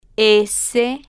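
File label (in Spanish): "s", spanish, female, slow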